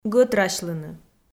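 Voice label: "гӧтрасьлыны", Komi, female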